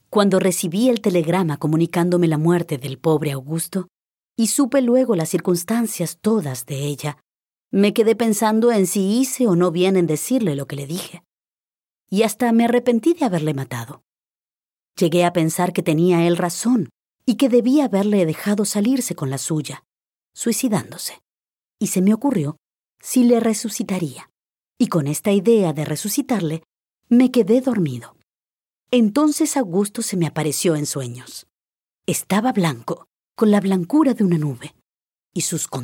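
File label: female, Argentinian Spanish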